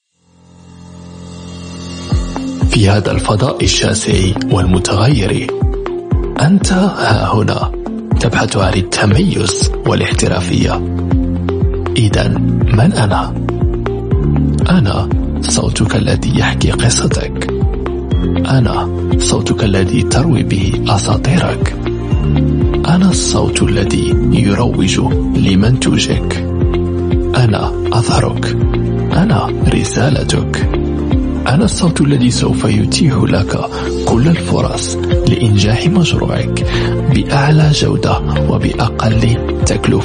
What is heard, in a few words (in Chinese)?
阿拉伯语男 外语 小语种 磁性